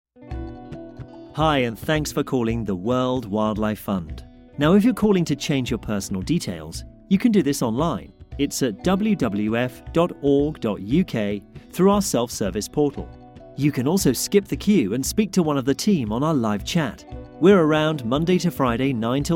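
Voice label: english british interactive voice response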